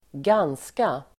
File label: "ganska", Swedish